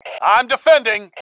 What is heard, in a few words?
i am defending, male